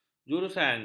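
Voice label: "jurusan", Malay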